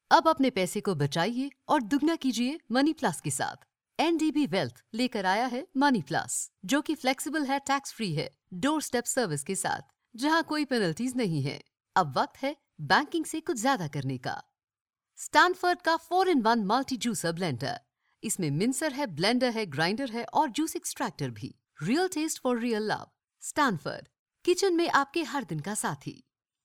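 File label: Hinglish India, female, voice over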